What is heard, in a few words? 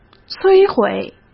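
"摧毁", Mandarin Chinese; hsk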